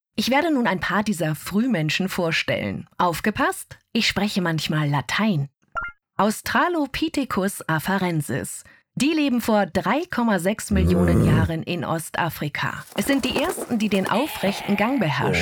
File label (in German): Audioguide Museum; Bernisches Museum; LOFT VOICES